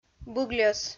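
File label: "быгльӧс", Komi, female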